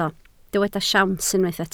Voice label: "siawns", Welsh